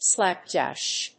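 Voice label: "slapdash", English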